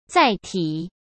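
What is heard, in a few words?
"载体", Mandarin Chinese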